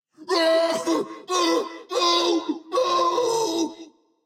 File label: fear; smite; osiris